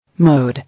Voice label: "Mode", English